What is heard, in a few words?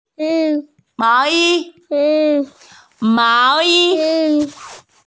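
"mao yi", Mandarin Chinese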